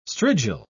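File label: "strigil", English, British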